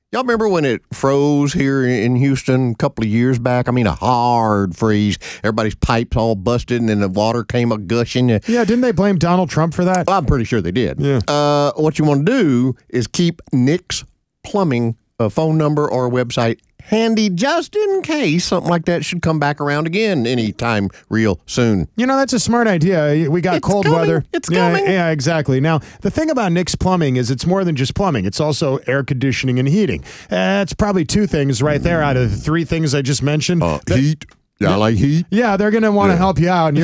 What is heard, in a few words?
RADIO AD; nicks plumbing radio ads